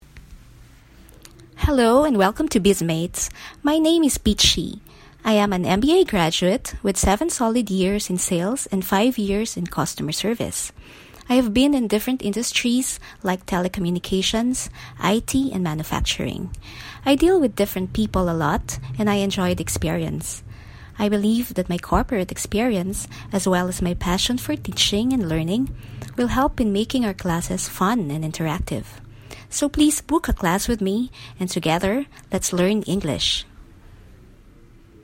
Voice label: trainer voice